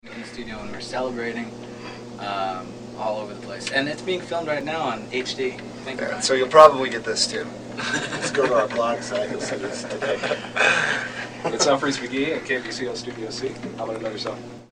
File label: Goofing Off; KBCO